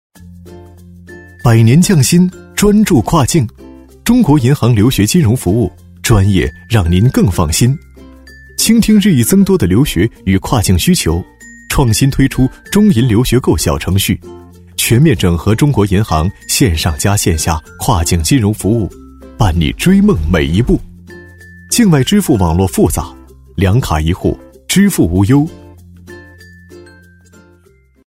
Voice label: 男, MG配音, 稳重轻松, 大气主流 年轻稳重; 中国银行留学小程序

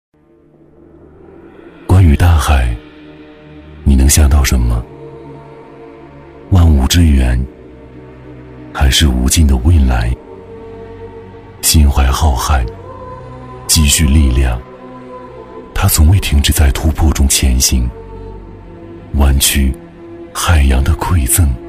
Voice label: 男 企业宣传 走心唯美; 湾区时代; 质感磁性

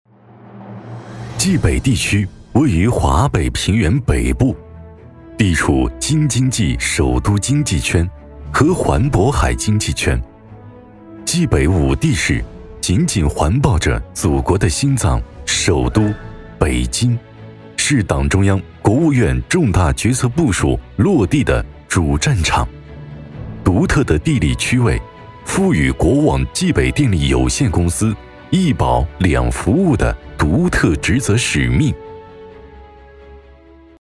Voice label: 男 专题; 电建-冀北电力; 抒情温暖

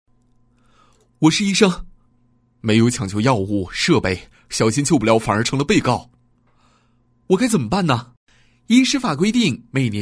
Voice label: 男 科普旁白 自然 活泼 搞怪; 医师法规定; 年轻活力 自然诉说

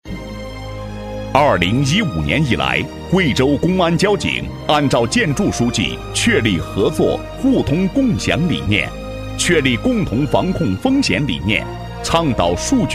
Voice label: 男 汇报片 沉稳有力度; 贵州公安; 大气激情 大气浑厚

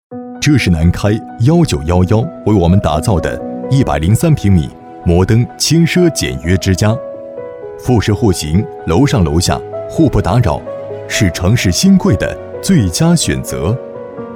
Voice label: 男 房地产广告 户型介绍 亲切磁性; 大气恢弘 质感磁性

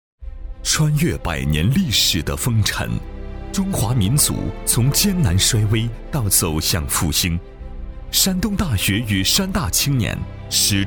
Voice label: 男 学校宣传 大气抒情; 男135-厚重磁性; 高端大气

